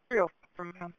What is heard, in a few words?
Police Sheriff Dispatch